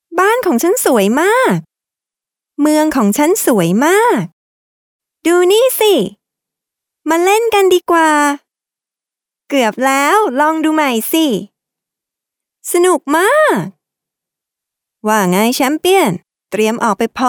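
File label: Thai female children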